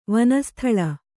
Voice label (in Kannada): "ವನಸ್ಥಲ", Kannada